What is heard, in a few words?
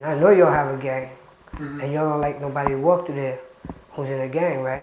Conversation with 17 year old male New York New York, american english dialect